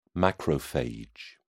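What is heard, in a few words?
"macrophage", British English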